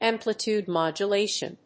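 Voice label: "amplitude modulation", English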